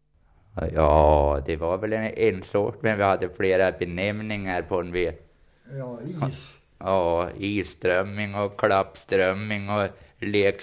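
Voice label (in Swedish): Gräsö Uppland, dialekter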